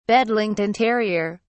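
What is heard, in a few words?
"bedlington terrier", English, British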